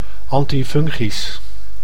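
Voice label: "antifungisch", Dutch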